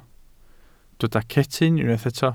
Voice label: "cetyn", Welsh

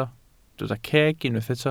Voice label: "ceg", Welsh

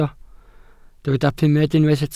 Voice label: "pumed", welsh

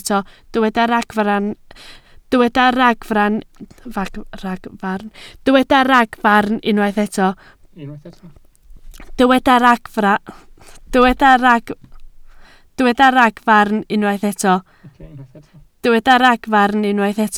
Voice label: "ragfarn", Welsh

